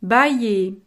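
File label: "bailler", French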